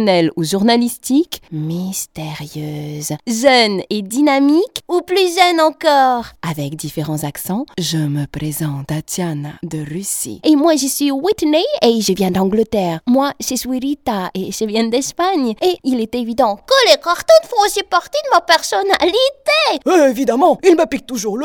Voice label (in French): medley voix off, comédienne